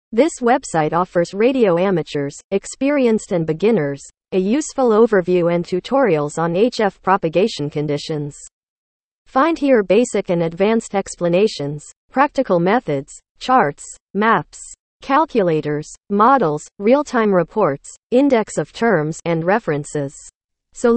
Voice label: English

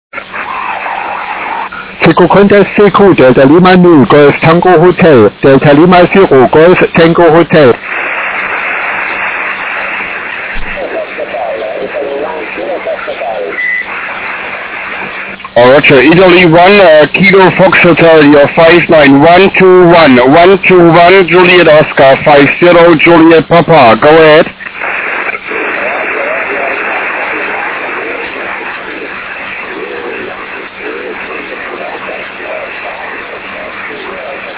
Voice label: 1296 SSB

